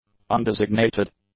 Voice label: "undesignated", english; adjectives